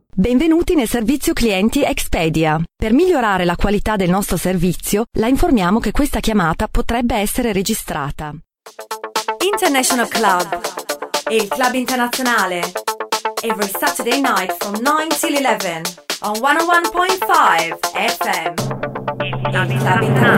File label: female italian english with italian accent and italian compilation